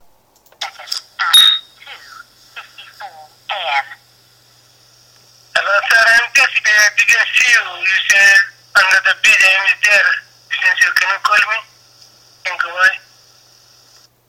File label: crazy weird voicemail